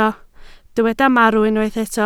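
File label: "marw" Welsh, spoken word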